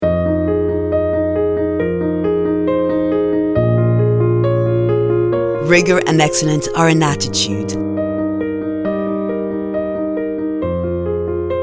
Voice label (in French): Voix Anglaise British International School